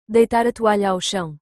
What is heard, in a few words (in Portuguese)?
deitar a toalha ao chão, Portuguese